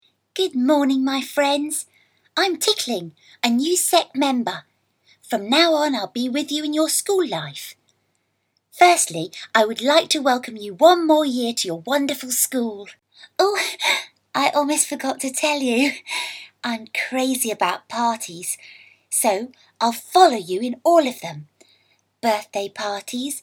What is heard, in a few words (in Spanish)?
locutora niña